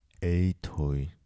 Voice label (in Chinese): "知馟", 台城, 男